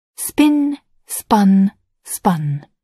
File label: "spin", English